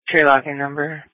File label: Police Dispatch